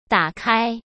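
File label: "打开", Mandarin Chinese; hsk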